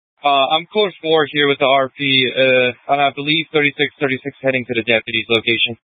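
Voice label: Police Dispatch, scanner audio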